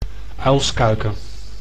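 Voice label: "uilskuiken", Dutch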